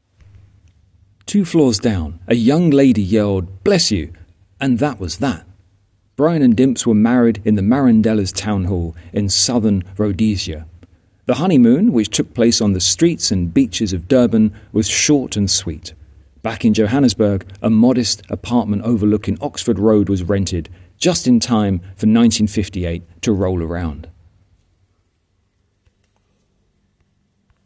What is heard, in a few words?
voiceover